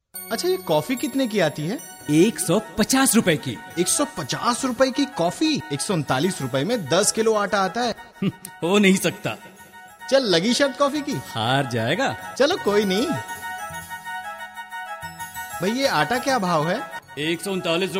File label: hindi; coffe